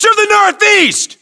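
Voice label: US direction relative northeast, battlechatter, english; localized english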